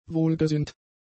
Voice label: "wohlgesinnt", German; adjectives

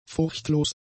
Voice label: "furchtlos", German; adjectives

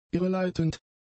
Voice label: "irreleitend", german; adjectives

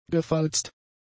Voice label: "gefalzt", German; adjectives

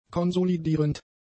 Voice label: "konsolidierend", German; adjectives

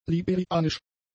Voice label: "liberianisch", German; adjectives

